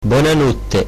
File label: good night, Corsican